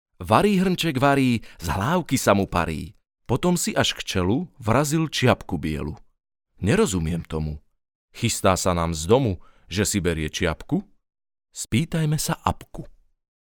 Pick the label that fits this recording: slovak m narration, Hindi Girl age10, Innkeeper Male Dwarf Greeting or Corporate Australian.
slovak m narration